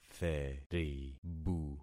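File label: "theribu", concatenated syllables; Affixing experiment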